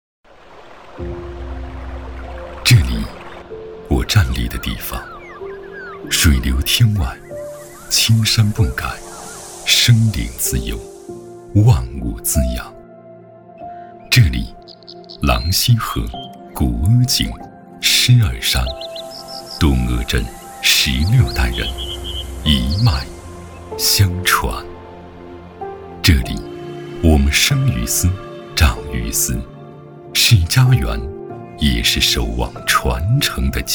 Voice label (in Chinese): 男 广告 品牌 片 品质高端; 福牌阿胶